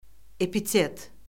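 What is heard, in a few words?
"epitet", Azerbaijani; Orfoqrafiya Lüğəti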